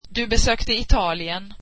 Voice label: you visited Italy, swedish